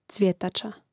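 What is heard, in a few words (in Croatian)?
"cvjetaca", Hrvatski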